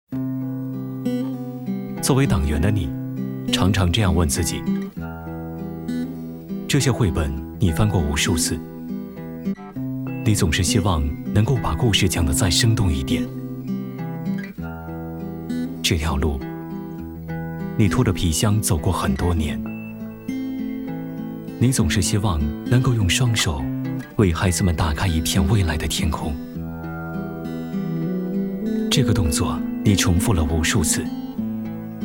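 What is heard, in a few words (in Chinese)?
男国大音-走心旁白-教师节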